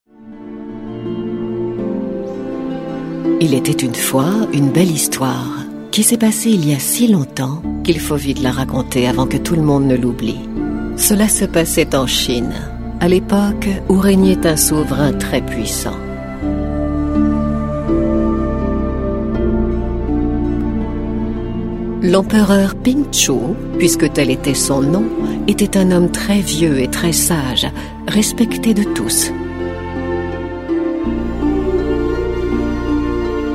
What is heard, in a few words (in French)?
Conte le rossignol et l'oiseau